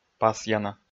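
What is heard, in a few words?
"пасъяна", Komi, male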